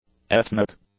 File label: "ethnic", English; adjectives